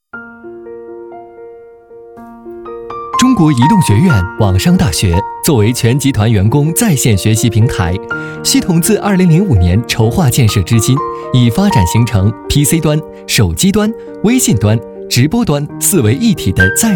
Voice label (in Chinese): 男 舒缓专题; 移动学院